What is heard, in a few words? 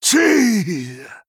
Male Human Death